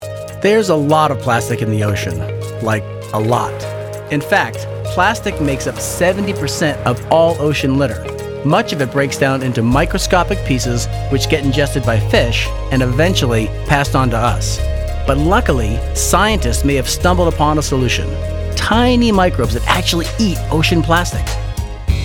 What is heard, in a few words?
narr